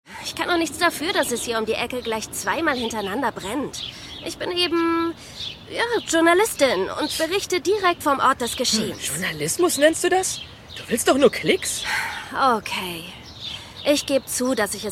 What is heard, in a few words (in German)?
Hoerspiel, Audio Drama (Hörspiel), German; TKKG Junior Folge 36